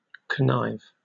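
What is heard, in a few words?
"connive", British English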